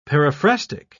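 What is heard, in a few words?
"periphrastic", English, British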